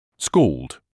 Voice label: "scald", English

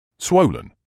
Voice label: "swollen", English

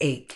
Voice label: "ache", Medical English

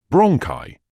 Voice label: "bronchi", medical english uk

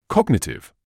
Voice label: "cognitive", medical english uk

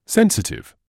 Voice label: "sensitive", English

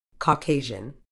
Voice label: "Caucasian", Medical English

